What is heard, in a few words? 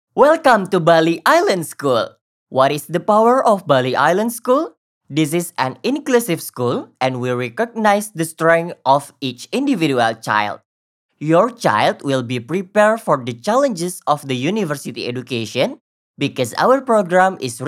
CASUAL ENGLISH